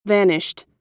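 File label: "vanished", American English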